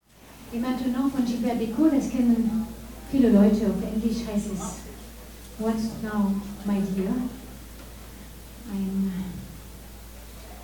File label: jazz & chanson, Ansage; Jazz-Club Trier e.V. (JCT); 8. Jazzgipfel (Tufa, Trier)